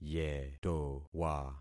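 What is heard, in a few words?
"yetowa", concatenated syllables; Affixing experiment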